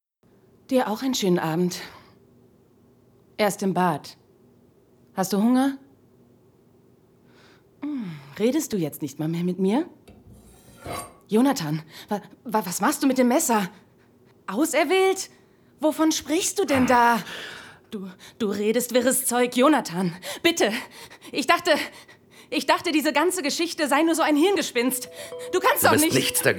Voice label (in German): Hörspiel Ausschnitt; Das neunte Kind